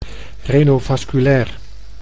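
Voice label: "renovasculair", Dutch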